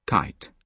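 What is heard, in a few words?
"kite", British English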